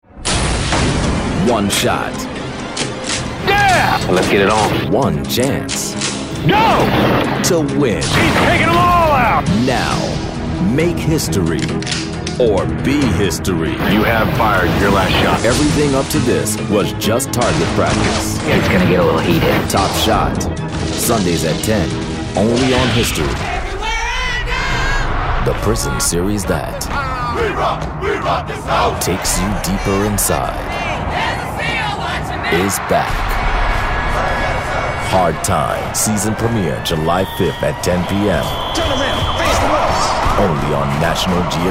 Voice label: promo